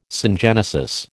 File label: "syngenesis", American